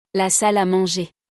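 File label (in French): "La salle a manger", French; vocabulaire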